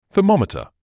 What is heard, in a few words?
"thermometer", English